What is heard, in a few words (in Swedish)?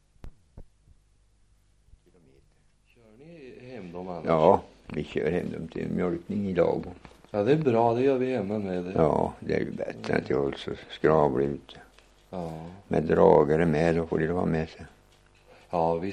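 Askeby Östergötland, dialekter; Folkminnen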